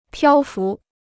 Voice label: "漂浮", Chinese

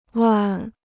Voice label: "万", Chinese

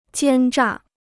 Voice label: "奸诈", Chinese

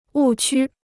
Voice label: "误区", Chinese

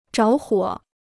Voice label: "着火", Chinese

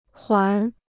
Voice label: "环", Chinese

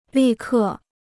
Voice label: "立刻", Chinese

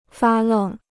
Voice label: "发愣", Chinese

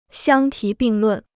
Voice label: "相提并论", Chinese

